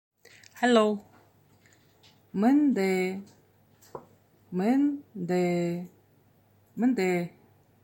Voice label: "Hello", buryat